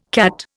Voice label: "CAT", Indian

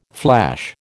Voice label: "flash", American

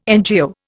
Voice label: "NGO", Indian

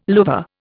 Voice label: "louvre", Indian

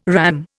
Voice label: "RAM", Indian

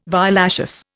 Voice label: "violaceous", Indian

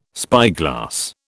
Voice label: "spyglass", British

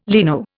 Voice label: "leno", Indian

